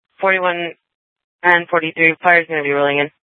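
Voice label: Sheriff, Parks, Sheriff radio scanner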